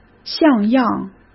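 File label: "像样" Mandarin Chinese; hsk; chinese-flashcards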